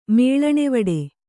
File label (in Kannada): "ಮೇಳಣೆವಡೆ", Kannada